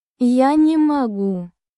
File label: russian phrases